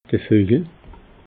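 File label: "vojel", Alsatian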